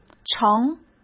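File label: "乘", Mandarin Chinese; chinese-flashcards; hsk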